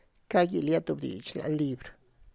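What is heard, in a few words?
tlacochahuaya, Zapotec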